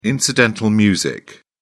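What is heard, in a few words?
"incidental music", British English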